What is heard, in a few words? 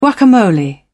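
"guacamole", British English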